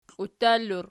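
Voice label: "kliuttali" plural, Archi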